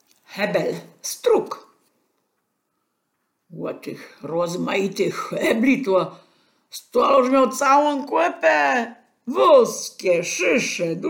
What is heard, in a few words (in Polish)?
hebel, gwarowy